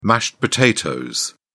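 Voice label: "mashed potatoes", British English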